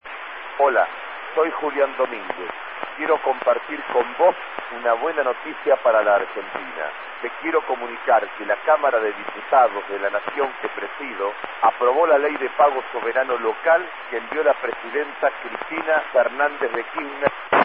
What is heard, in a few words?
Spanish Argentina